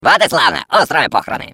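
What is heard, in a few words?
Defective Turret defect pass, Russian; sp sabotage factory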